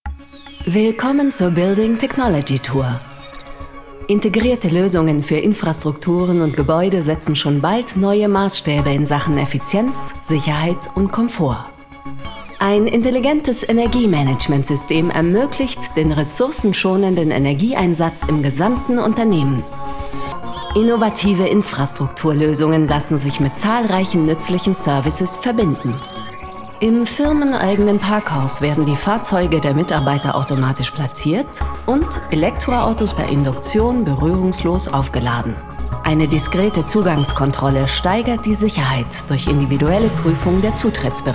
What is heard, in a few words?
voiceover; Siemens